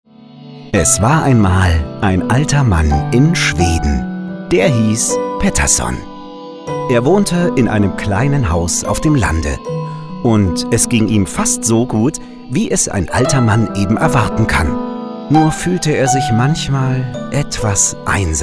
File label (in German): Hoerbuch freundlich erzaehlerisch; Pettersson und Findus